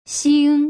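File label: "xing", Mandarin Chinese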